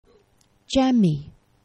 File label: "gxemi", esperanto; geneva